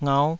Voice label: "ngauh5", taiwanese syllables